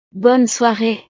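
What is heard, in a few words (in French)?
"bonne soiree", French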